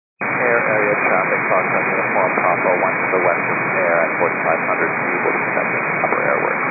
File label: 122.925 MHz; scanner; radio